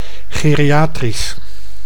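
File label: "geriatrisch", Dutch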